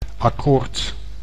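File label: "akkoord", Dutch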